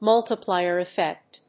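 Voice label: "multiplier effect", English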